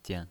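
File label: "jian", neutral tone, pinyin voice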